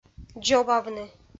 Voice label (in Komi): "дзобавны", Komi